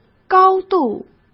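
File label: "高度", Mandarin Chinese; hsk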